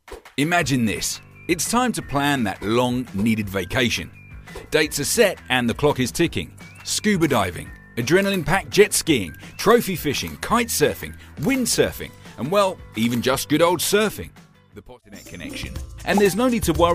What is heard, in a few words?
BRITISH MALE EXPLAINERS